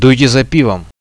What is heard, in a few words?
win, Russian